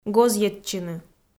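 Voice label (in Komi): "гозйӧдчыны", Komi, female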